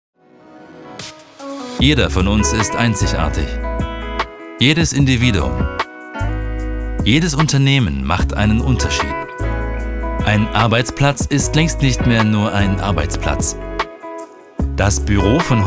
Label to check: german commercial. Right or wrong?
Right